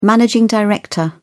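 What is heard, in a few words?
"managing director", British English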